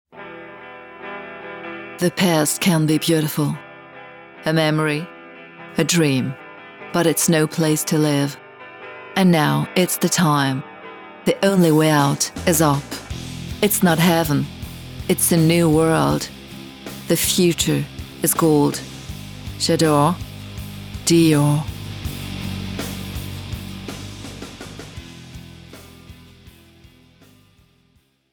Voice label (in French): anglais; dior